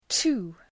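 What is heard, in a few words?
"two", English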